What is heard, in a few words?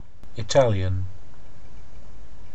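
"italian" English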